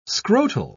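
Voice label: "scrotal", British English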